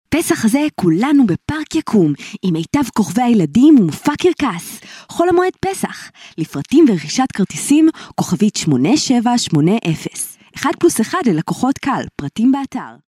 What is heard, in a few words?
HB F commercial